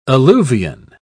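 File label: "alluvion", British English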